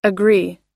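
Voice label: "agree", English pronunciation; long i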